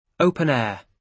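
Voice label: "open air", British English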